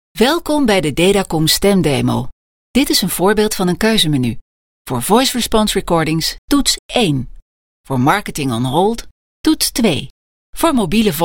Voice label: Voice over Nederlandse vrouw

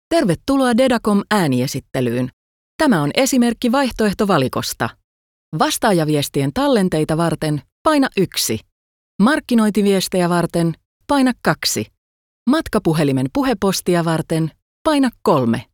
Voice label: Voice over Fins vrouw

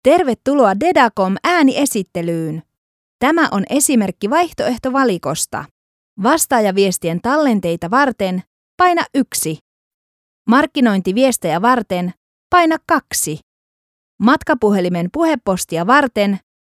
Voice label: Voice over Fins vrouw

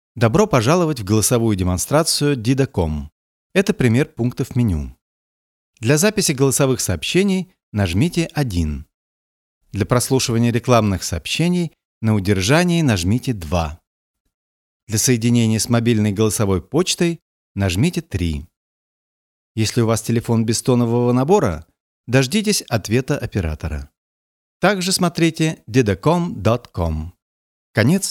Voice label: Voice over Russisch man

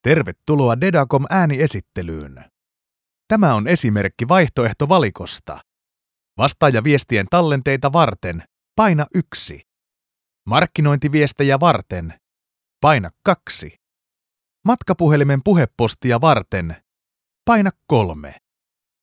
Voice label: Voice over Fins man

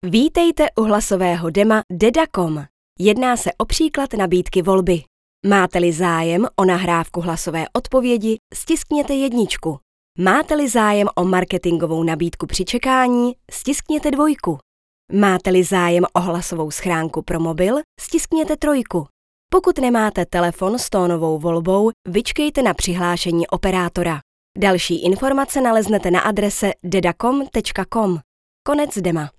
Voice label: Voice-over Tsjechisch vrouw

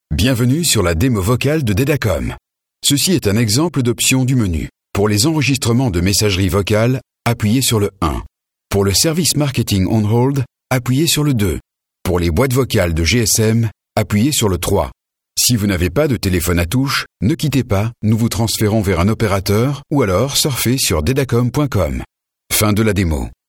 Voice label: Voice over man

